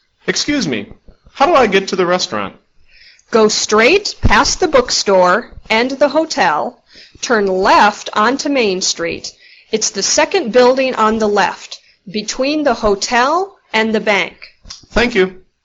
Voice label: dialog